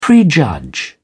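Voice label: "prejudge", English, British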